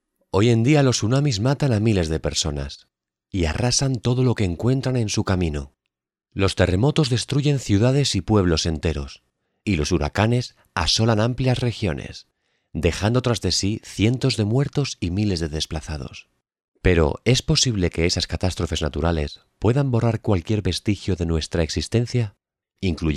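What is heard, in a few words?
Spanish Spain, male, voice over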